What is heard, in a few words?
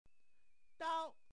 "dao1", Chinese